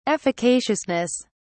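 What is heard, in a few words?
"efficaciousness", British English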